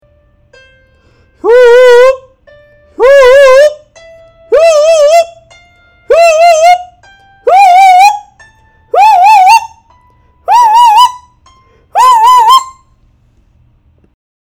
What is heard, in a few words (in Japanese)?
後ろ下 超高音裏声